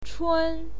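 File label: "chun", Mandarin Chinese, first tone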